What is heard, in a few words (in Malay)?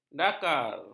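"dakar", Malay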